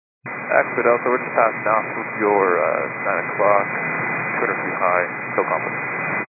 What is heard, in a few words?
122 925MHz, scanner radio